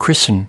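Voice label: "christen", US English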